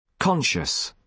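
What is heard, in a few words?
"conscious", British English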